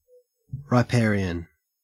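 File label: "riparian", Australian English; pronunciations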